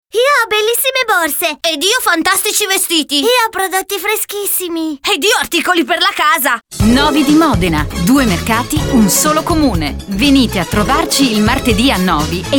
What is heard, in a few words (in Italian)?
mercati spot